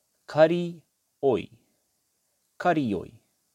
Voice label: "Karioi", te reo